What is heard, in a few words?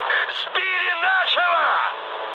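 Russian aircraft destroyed mood high, speech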